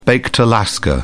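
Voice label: "baked alaska", British English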